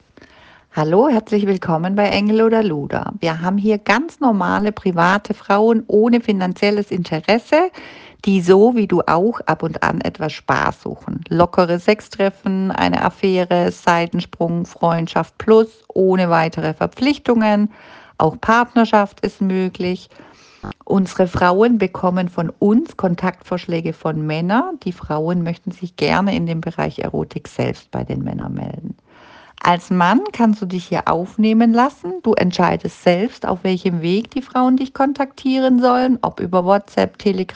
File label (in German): sprachnachricht